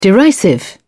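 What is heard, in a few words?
"derisive", English, British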